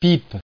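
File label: "pipe", French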